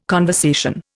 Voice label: "conversation", Indian